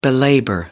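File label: "belabor", British English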